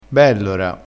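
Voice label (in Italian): "bellora", dialettale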